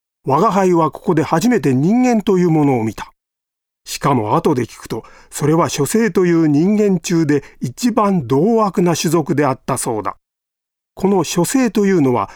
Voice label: 朗読

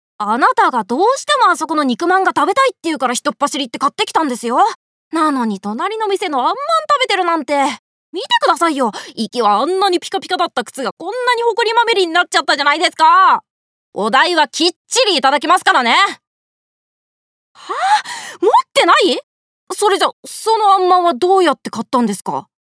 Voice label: 少年早口

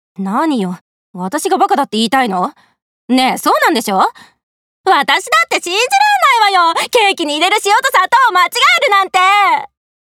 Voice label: 女子高生逆ギレ

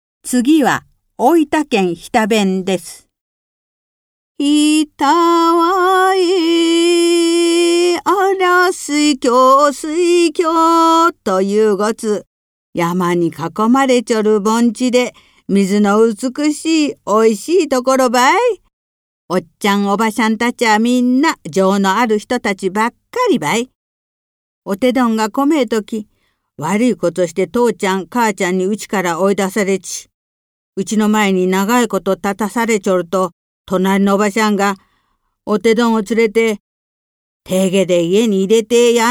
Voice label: 方言

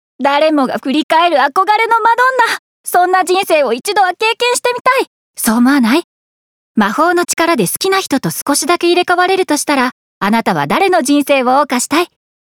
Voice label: 映画予告

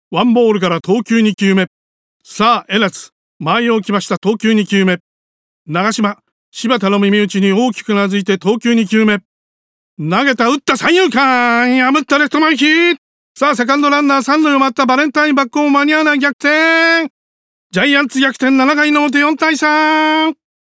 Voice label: 昭和ナイター実況

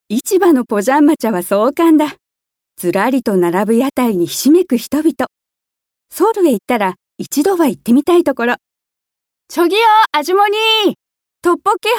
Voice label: 紀行ナレ

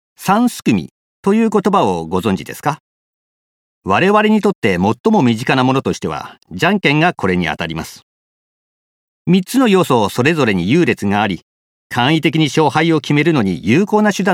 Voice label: 情報番組ナレ

